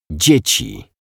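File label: "dzieci", Polish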